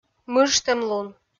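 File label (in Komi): "мыжтӧмлун", Komi, female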